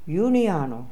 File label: "Uneano", pronounciations; Fourth Way; Beelzebub